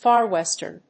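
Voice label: "Far Western", English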